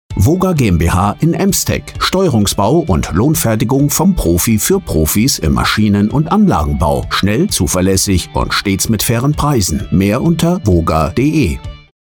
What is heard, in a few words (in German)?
Funkspot